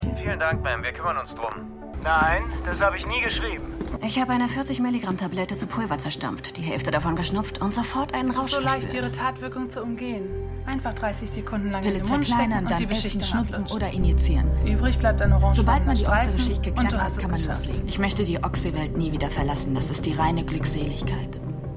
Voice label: FDA Mann Ehemaliger Vertreter Div Chatter Innen, Stimmproben; Dopesick

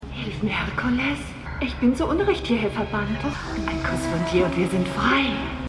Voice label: Verfuehrerin; Hercules

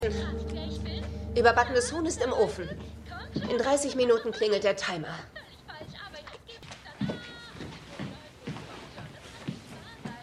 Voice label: TV Frauenstimme; This Is Us

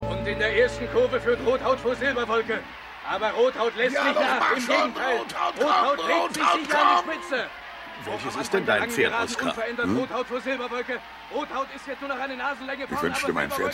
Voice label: TV Kommentator; Maennerwirtschaft

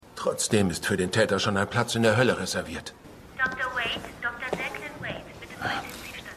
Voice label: Durchsage; Todd Hastings; City On A Hill

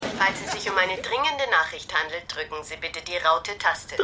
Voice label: Telefonstimme

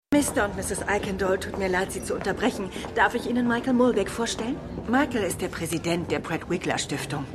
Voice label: Vorstandsfrau, Stimmproben

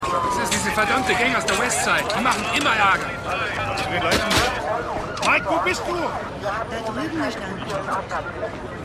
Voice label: Mann Tatortmann Frau; The Wire

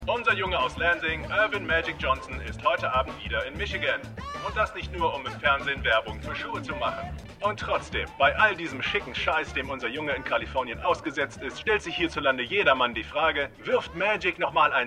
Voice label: Nachrichtensprecher; Lansing; Winning Time

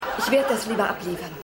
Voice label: Lieferfrau; Stimmproben

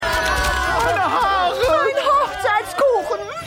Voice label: Mann

